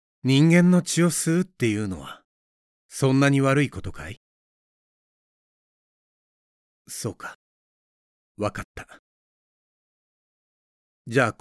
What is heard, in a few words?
Japanese animation